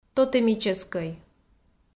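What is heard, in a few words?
"тотемическӧй", Komi, female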